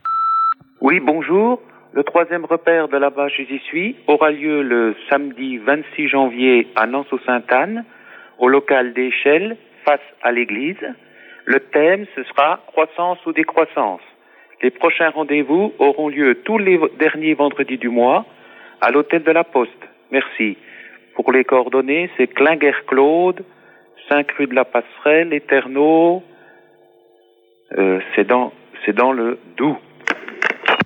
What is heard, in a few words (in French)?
repondeur; Repaires de La-bas